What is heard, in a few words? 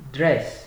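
"dress" Nigerian Pidgin, male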